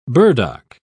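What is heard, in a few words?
"burdock", British English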